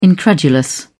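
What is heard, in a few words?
"incredulous", English, British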